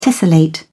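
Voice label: "tessellate", English, British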